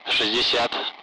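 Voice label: Speech, Russian, Numbers, 60; 60-begin; Allied Flight; DCS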